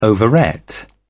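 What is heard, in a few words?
"overate", British English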